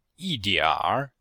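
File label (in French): yi dianr, Chinese, Vocabulaire et phonétique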